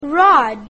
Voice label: "rod", English; grade1; basic sounds 1

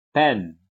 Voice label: "PEN", english; grade1; making words

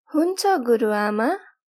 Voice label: girl

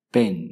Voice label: "PEN", english; grade1; short vowels

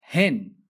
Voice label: "hen", English; grade1; short vowels

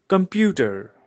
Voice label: computer, en; grade1; communication

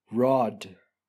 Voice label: "rod", english; long vowels

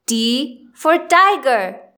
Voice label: t tiger, English; grade1; identifying letters third

